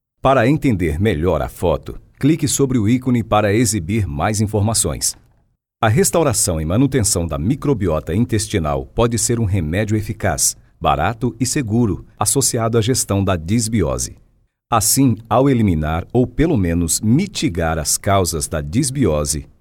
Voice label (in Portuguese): Portuguese Brazil